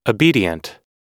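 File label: "obedient", American English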